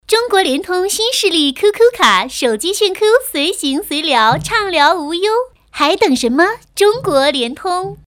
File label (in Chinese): 广告, 国语女声配音; 中国联通